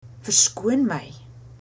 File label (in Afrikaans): excuse me, afrikaans